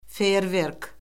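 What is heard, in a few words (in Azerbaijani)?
"feyerverk", Azerbaijani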